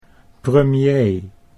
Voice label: "premier", Dutch